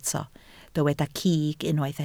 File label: "cig", Welsh